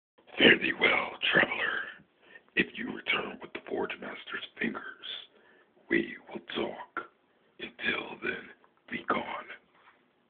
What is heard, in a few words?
orc, voice auditions